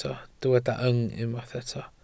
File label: "yng", Welsh